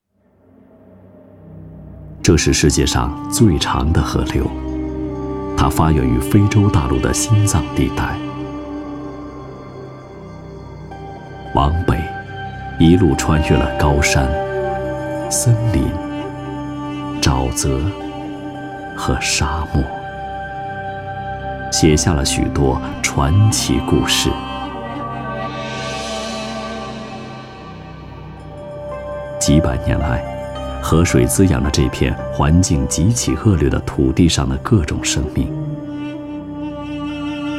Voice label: 纪录 尼罗河旁白

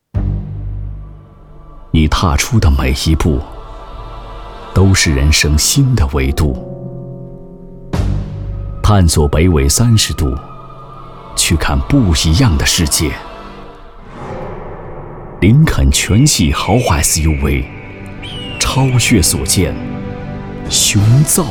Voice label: 广告 睿智深沉; 林肯之道

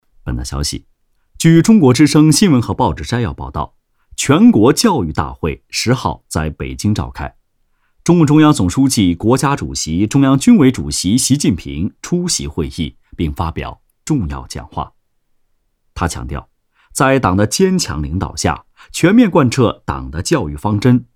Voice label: 新闻 掷地有声

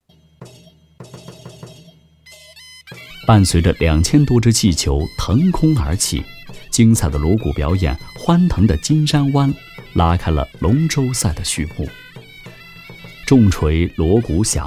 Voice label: 纪录 人文 赛龙舟

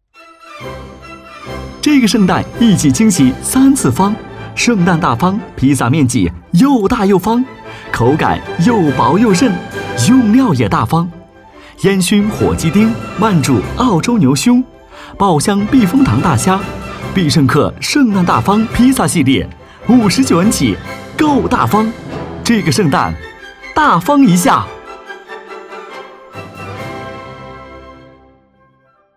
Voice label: 广告 轻松欢快; 圣诞大方披萨

